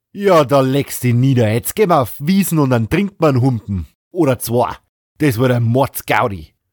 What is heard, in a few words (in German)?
Niederbayrisch Dialect